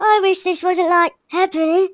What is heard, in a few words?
bye bye, speech, Hippy